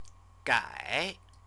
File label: "gai", Chinese; CTCFL; University of Oxford